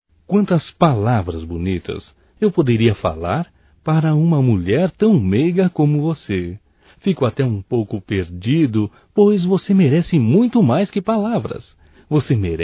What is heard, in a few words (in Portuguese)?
AGRADECIMENTO ROMANTICO, Só Voz, masc; Telemensagens; AGRADECIMENTOS